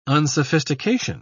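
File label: "unsophistication", British English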